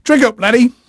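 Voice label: English male, voice; Pirates Of The Caribbean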